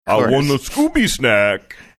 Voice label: funny; i want scooby snack

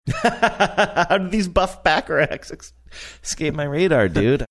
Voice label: laughing saying how did these buff

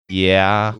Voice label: deflated yeah

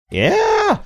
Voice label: funny yeah

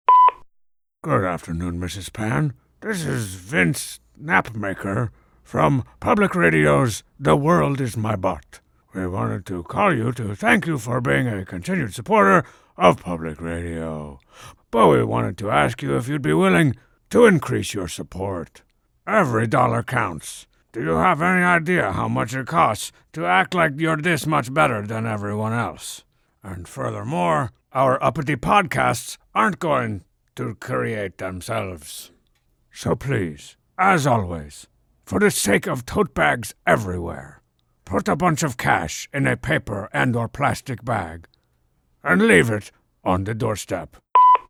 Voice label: voicemail message; strongbad

